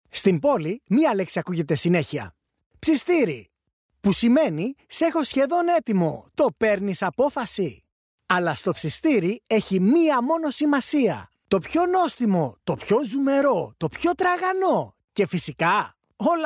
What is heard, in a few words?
greek voiceover locutor griego; locutores griegos